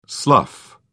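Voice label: "slough", British English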